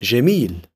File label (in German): "djamil", arabische jungennamen